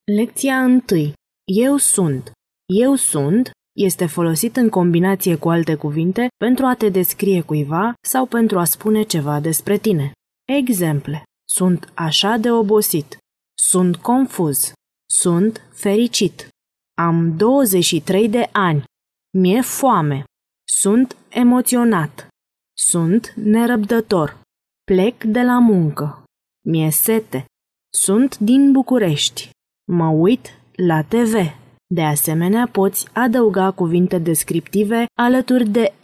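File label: Romanian, female, elearning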